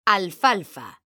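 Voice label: "alfalfa", Spanish, female; mekanta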